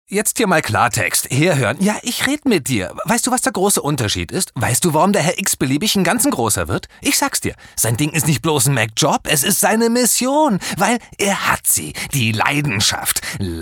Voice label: Schauspiel Energisch

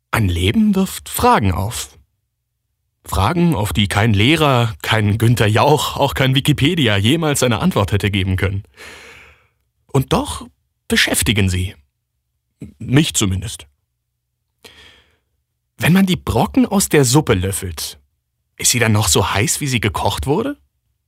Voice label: Schauspiel Freundlich

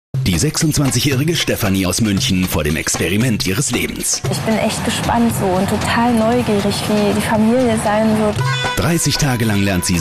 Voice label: K Fremdtake, Dynamisch

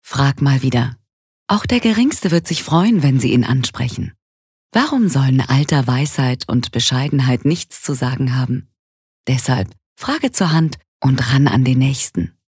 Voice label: Werbung Sanft

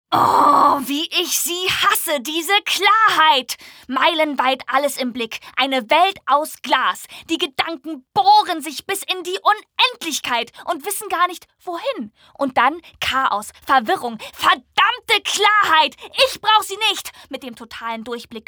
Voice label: Energisch

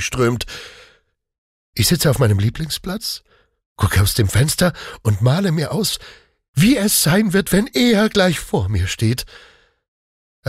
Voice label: Vorfreude Witzig

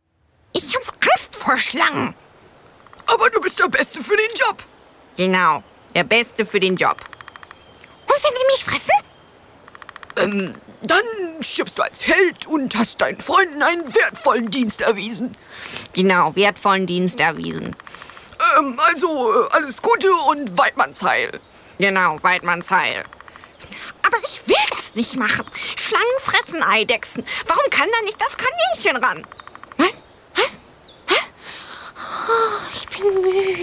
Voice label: Schauspiel Variabel

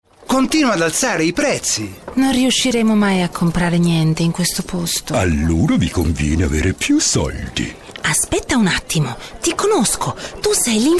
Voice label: Schauspiel Frech, IT

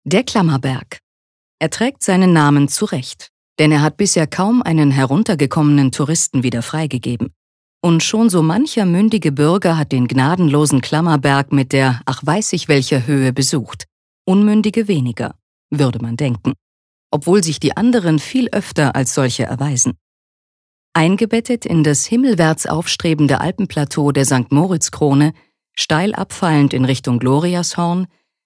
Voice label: Kommentar Neutral

